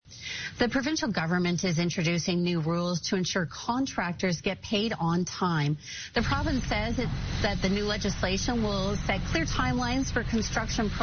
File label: Newscast